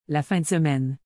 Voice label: "la fin de semaine", Québécois French